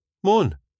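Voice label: "mon", Scottish English; 男性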